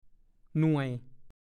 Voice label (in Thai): "หน่วย", ภาษาโคราช